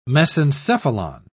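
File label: "mesencephalon", British English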